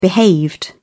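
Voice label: "behaved", en, uk British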